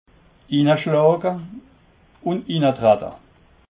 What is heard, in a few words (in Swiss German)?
"ineschlawe", alsace